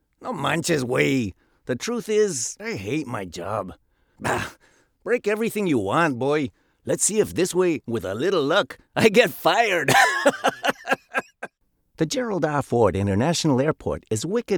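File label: Character Bilingual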